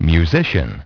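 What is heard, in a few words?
"musician", English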